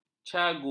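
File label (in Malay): "cagu", Malay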